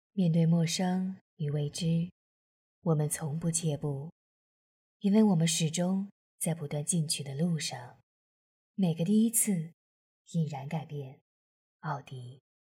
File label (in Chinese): 广告促销; 奥迪广告促销